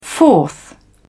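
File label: "fourth", English, British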